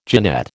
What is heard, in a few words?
"genette", American